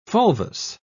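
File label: "fulvous", British English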